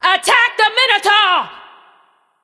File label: vox attack; smite; nox; voicepack